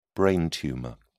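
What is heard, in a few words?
"brain tumour", British English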